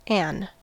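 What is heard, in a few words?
"an", US English, stressed; pronunciations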